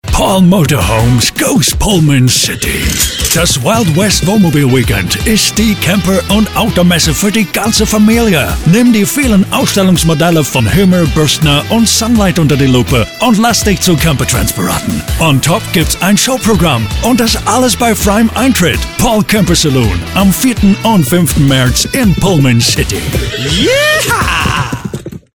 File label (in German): Hoerprobe Werbung, Sprecher; Wohnmobil Weekend